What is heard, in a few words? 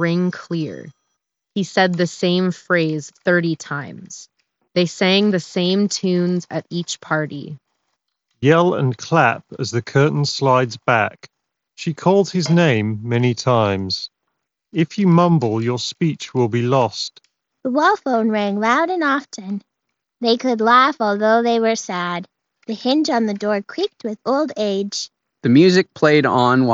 Office microphone sample; Alienware Pro; wireless headset